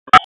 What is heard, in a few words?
Police Tac, p25; wake